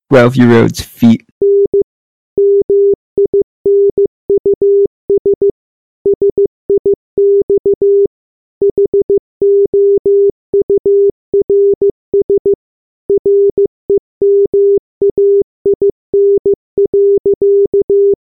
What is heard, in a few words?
announcement